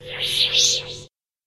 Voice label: monster specter